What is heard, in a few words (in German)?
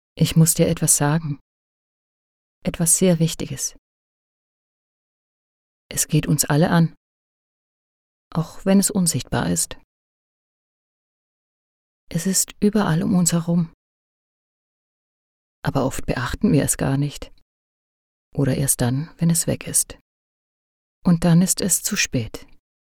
Sprachprobe deutsch doku